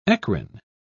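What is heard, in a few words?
"eccrine", British English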